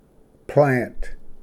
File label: "ᎠᏰᎲᏍᏙᏗ", Pronunciation; plant